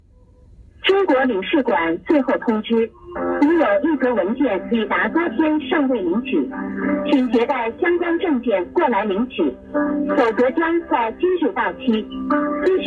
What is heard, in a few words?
chinese scam call